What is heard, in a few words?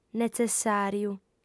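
"netzessàriu", female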